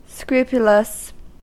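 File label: "scrupulous", US English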